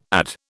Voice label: "add", British